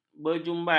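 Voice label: "berjumbai", Malay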